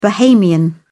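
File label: "bahamian", British English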